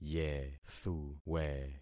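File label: "yethuwe", concatenated syllables; Affixing experiment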